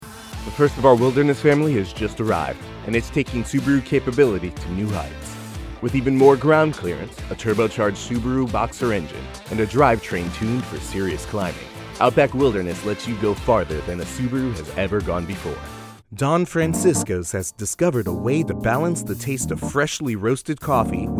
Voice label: Commercial VO